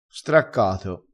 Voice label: "straccato", crespinese

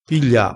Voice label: "piglia", crespinese

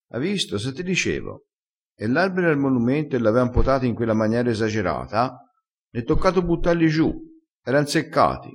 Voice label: "butta giu", crespinese

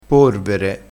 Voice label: "porvere", crespinese, vocabolario dialettale

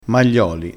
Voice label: "maglioli", crespinese

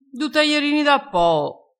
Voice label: "taierino", dialettale

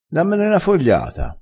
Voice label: "fogliata", crespinese

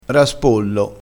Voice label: "raspollo", crespinese

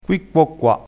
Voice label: "qui quo qua", vocabolario dialettale

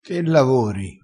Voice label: "che lavori", crespinese

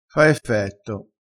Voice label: "fa effetto", vocabolario dialettale

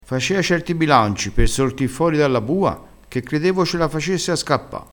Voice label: "bilancio", vocabolario dialettale

